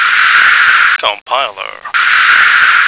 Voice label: "compiler", American